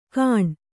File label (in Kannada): "ಕಾಣ್", Kannada